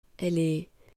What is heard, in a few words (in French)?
"elle est", French, female; to be verbe etre